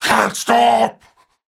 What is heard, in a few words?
Cannibal Male Taunt; Trunk Act One Monster